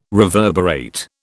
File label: "reverberate", British